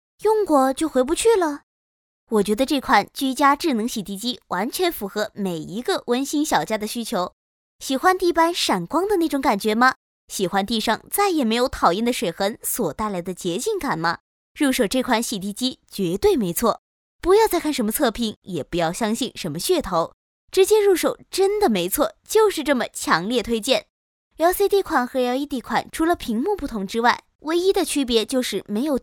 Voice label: 广告 配音

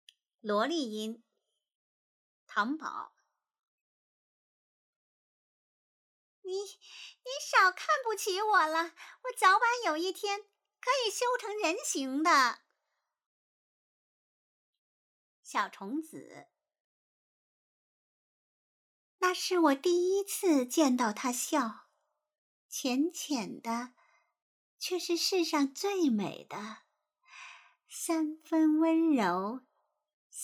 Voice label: 萝莉音

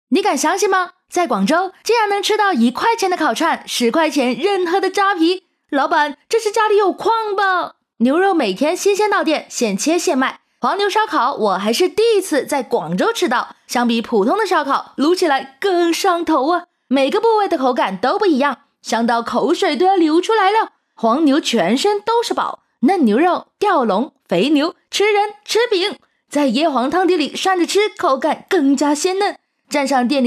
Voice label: 普语 烧烤; 黄牛烧烤

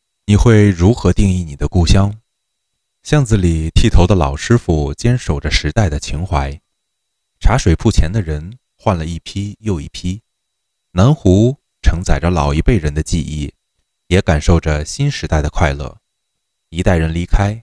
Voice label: 纪录片旁白

